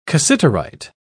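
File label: "cassiterite", British English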